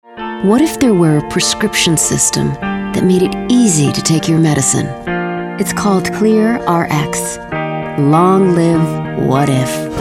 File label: commercial